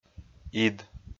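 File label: "ид", Komi-Zyrian, male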